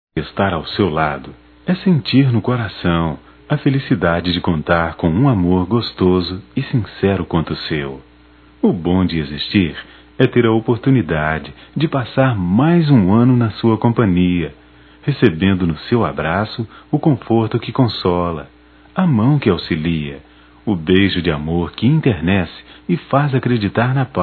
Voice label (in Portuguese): Ano novo romantico, masc; Natal e ano novo; Telemensagens 2017